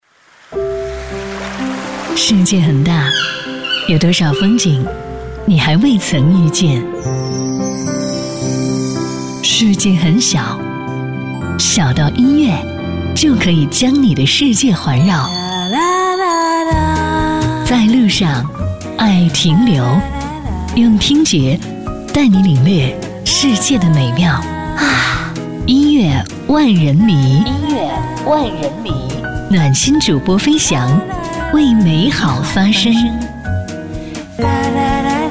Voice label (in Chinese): 电台包装